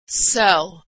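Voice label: "sell", American English; verb; sell cell; Homophones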